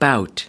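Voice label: "bout", US English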